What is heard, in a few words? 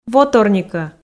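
"воторникӧ", Komi, female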